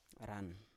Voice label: "ran", Biak